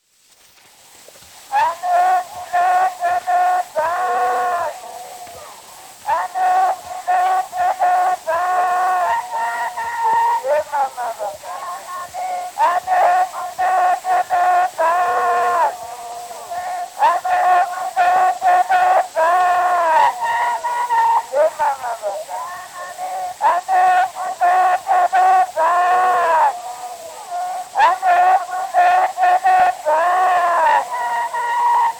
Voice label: Zulu Recordings sound recording; Father Franz Mayr